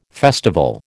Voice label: "festival", American

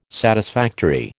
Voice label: "satisfactory", American

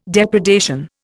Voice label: "depredation", Indian

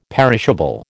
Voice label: "perishable", American

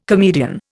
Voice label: "comedian", Indian

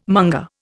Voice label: "monger", Indian

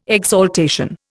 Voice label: "exaltation", Indian

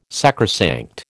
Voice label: "sacrosanct", American

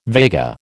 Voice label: "Vega", American

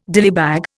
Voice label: "dillybag", Indian

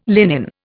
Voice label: "linen", Indian

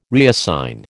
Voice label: "reassign", American

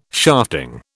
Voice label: "shafting", British

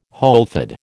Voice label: "wholefood", American

